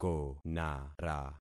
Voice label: "konara", concat syllables; Affixing experiment

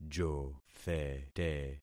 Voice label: "jothete", concat syllables; Affixing experiment

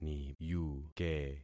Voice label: "niyuke", concat syllables; Affixing experiment

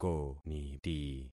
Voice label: "koniti", concat syllables; Affixing experiment

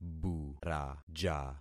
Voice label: "buraja", concat syllables; Affixing experiment

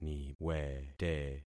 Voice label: "niwete", concat syllables; Affixing experiment

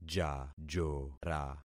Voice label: "jajora", concat syllables; Affixing experiment

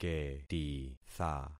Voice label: "ketitha", concat syllables; Affixing experiment

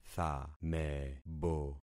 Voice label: "thamebo", concat syllables; Affixing experiment

